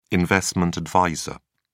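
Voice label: "investment adviser", British English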